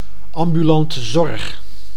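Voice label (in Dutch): "ambulante zorg", Dutch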